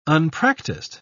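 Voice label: "unpractised", British English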